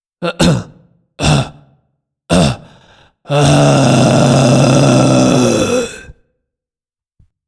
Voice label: voice commentary